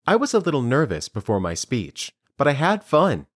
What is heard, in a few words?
Dictation